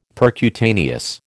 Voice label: "percutaneous", American